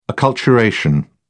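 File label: "acculturation", British English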